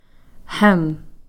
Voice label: "hem", when